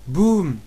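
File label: explosion description, French; naturalforces; stamps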